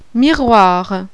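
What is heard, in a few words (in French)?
"MIROIR", French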